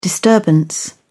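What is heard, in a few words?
"disturbance", British English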